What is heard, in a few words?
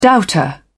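"doubter", British English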